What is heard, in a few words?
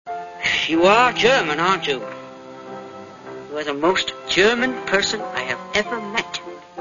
german